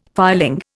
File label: "filing", Indian